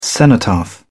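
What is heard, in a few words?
"cenotaph", British English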